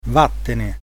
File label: leave me alone, italian